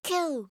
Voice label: "Q", alphabet